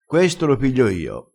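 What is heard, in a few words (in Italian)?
"noe", dialettale crespinese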